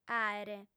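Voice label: "àere", Sardinian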